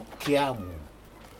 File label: "keiamu", Aneityum island polynesian